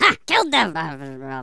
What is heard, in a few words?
"FATALITY", Angry Scots; Worms Armageddon